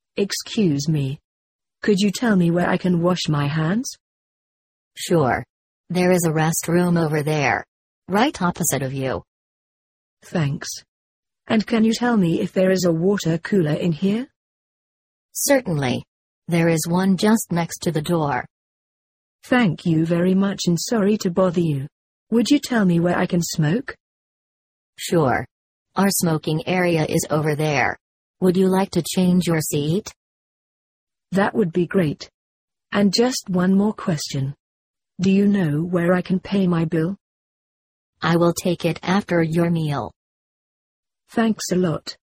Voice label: asking questions conversation